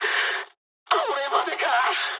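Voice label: aircraft destroyed mood med, speech japanese; War Thunder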